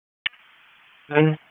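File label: Raleigh Police Southwest District Dispatch, p25; wake p25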